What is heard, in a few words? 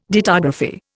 "dittography", Indian